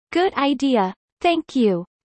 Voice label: fast; studying abroad